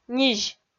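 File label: "низь", Komi-Zyrian, female; kpv audio dict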